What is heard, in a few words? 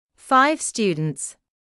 "five students", English